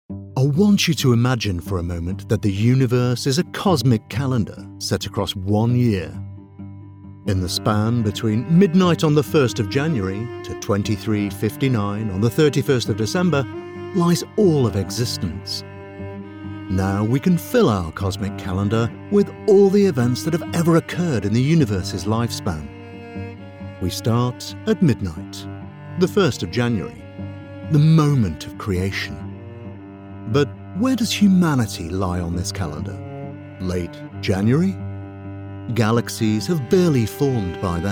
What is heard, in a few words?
voiceover narrative